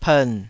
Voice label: "pern3", taiwanese